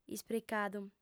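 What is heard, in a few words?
"ispricadu", Sardinian, female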